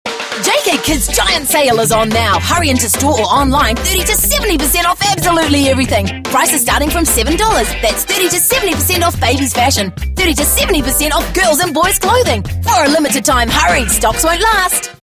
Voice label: TVC High Retail